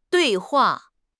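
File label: "dui4 hua4", Chinese; HSK4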